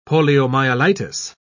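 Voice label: "poliomyelitis", British English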